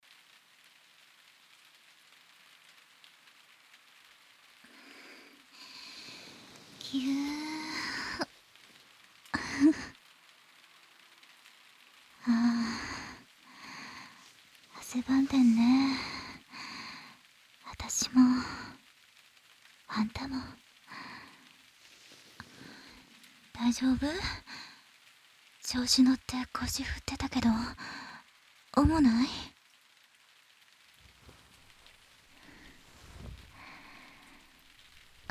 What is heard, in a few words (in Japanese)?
添い寝; 安らぎの時間